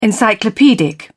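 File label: "encyclopedic", British English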